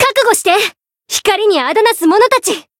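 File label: Voice encounter, Japanese; flammelapis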